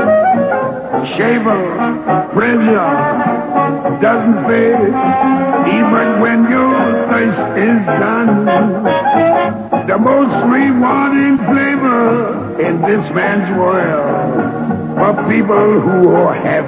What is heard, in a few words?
Commercial Shaffer Beer; OTR Commercials